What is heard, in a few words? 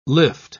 "lift", English